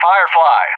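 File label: "Firefly", English; JTAC; Callsign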